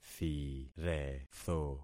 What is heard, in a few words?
"thiretho", concat syllables; Affixing experiment